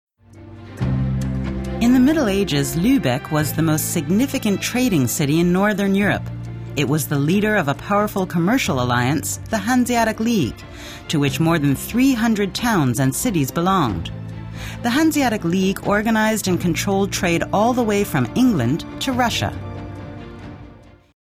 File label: docu